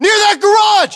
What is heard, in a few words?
US landmark near garage, battlechatter, english